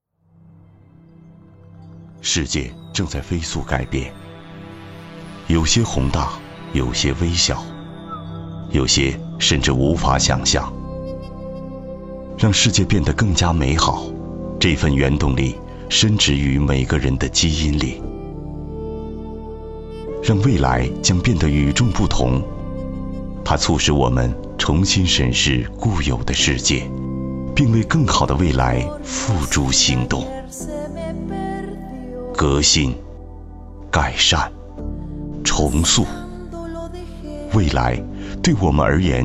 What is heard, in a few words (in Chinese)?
广告样音